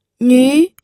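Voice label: "nü", Mandarin Chinese, second tone; pinyin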